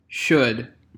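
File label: "should", English; pronunciations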